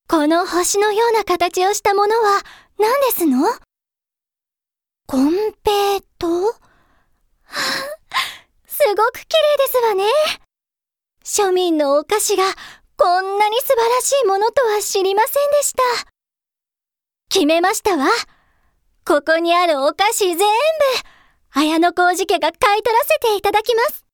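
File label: high school girl, Japanese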